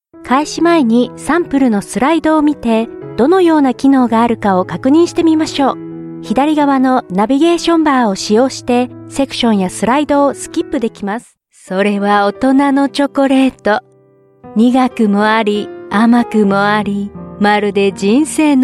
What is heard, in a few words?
Japanese